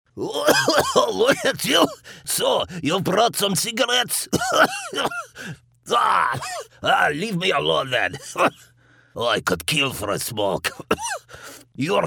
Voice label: EASTERN EUROPEAN accent voiceover